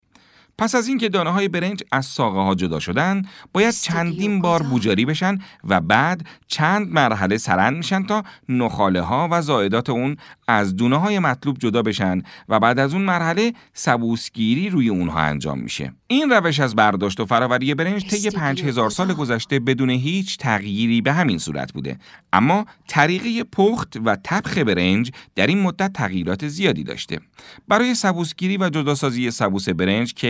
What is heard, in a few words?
documentary